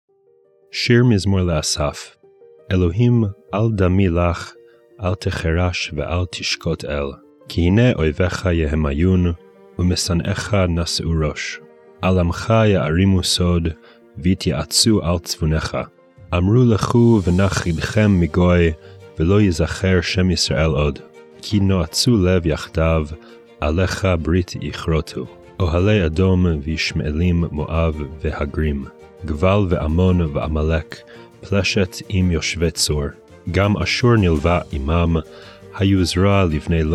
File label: psalm reading hebrew; Meir Soloveichik